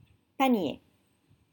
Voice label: "panier", French

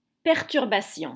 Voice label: "Perturbation", French

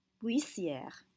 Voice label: "buissières", French

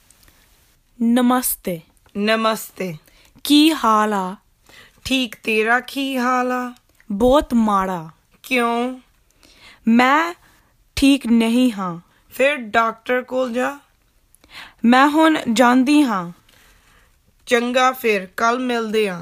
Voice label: Dialogue Greetings Informal

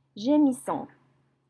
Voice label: "gemissant", French

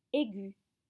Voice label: "aigu", French

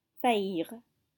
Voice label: "faillir", French

